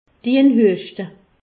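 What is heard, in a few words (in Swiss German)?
"hueschte", Alsatian; medecine; toussez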